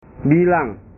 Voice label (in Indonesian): "bilang", bahasa